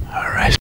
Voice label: "aaaright", vocal